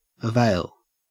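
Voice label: "avail", Australian English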